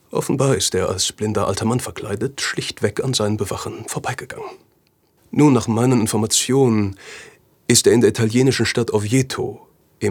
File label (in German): SYNCHRON Brit Historische Dramaserie nobel blassiert